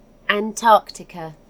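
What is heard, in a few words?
"antarctica", British English; gcompris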